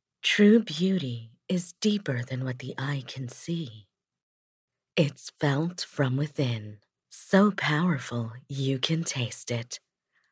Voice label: English (Bold)